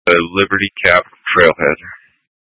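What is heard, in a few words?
Incident Tactical 1, Scanner audio recording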